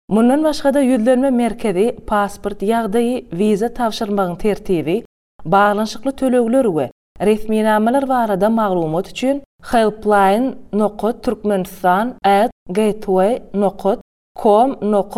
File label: Turkmen Female Voice Over